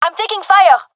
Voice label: takingfire, gendarmerie fem; vo; agents